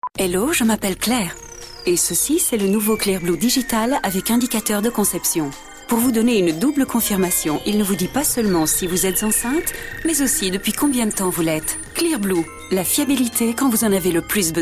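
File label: French, female, commercial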